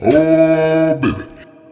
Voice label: Oh Baby, Spoken Hip Phrases